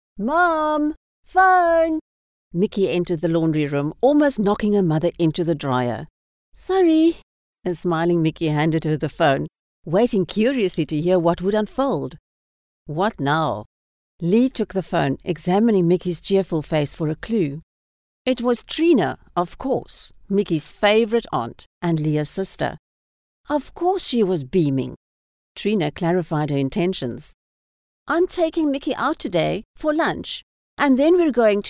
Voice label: Audio Book

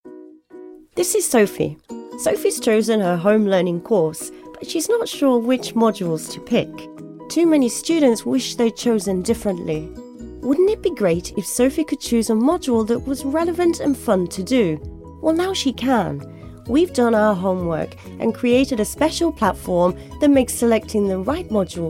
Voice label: Explainer

